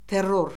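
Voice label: "terror", Azerbaijani; Orfoqrafiya Lüğəti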